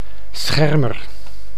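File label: "Schermer", Dutch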